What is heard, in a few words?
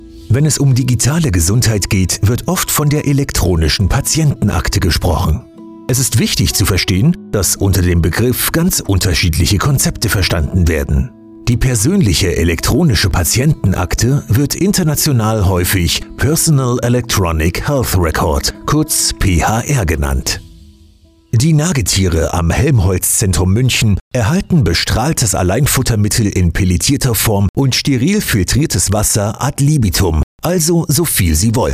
German M Medical